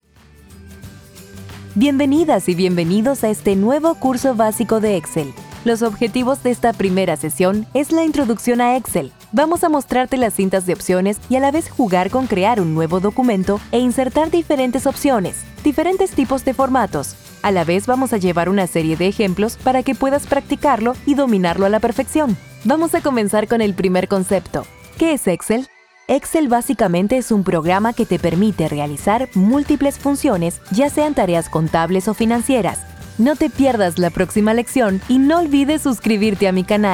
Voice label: E learning neutro